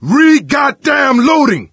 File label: reload intense, survivor voice; Left 4 Dead 2; coach